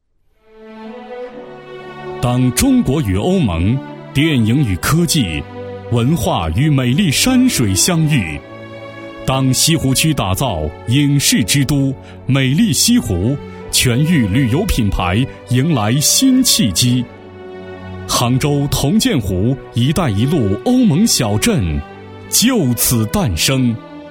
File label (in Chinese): 男 旁白; 杭州铜鉴湖一带一路欧盟小镇